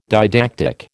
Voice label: "didactic", American